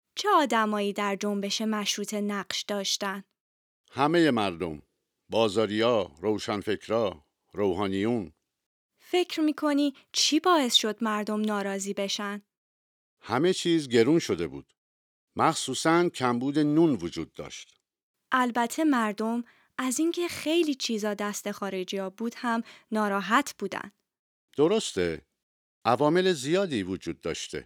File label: Dialogue lesson Farsi